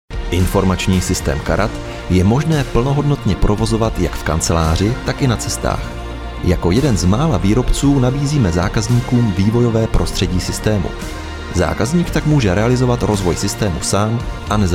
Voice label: Czech male; lektoring